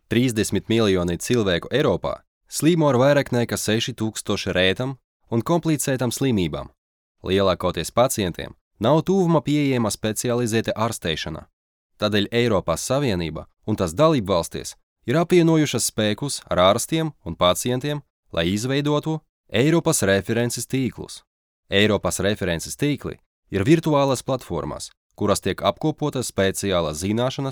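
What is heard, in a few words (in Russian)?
латышский, Иностранные дикторы; diktor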